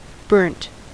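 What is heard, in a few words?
"burnt", US English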